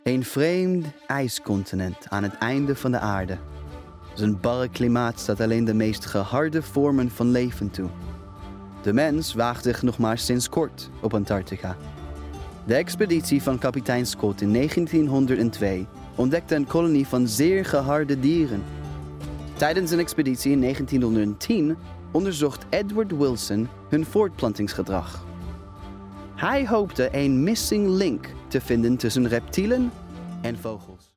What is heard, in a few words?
Dutch; Dutch, European, Male, Home Studio, 20s-30s